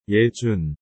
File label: "예준", korean